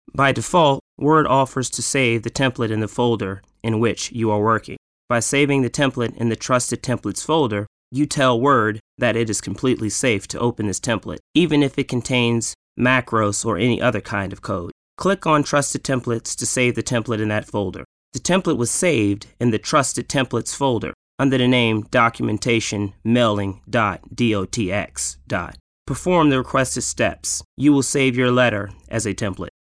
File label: english us m e-learning, voice demos